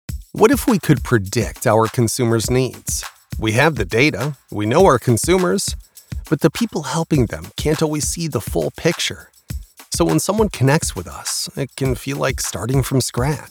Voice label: Non Broadcast Narration; NonBroadcastNarrationDemo